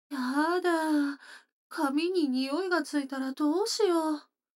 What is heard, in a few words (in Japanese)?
女の子台詞